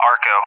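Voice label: "Arco", English, USA; CallSign; DCS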